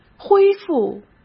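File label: "恢复", Mandarin Chinese; chinese-flashcards; hsk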